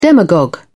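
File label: "demagogue", British English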